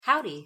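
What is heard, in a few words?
"howdy", English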